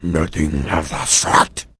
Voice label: answer, vort speech; hl2